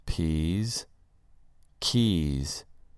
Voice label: "peas" "keys"

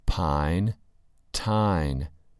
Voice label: "pine" "tine"

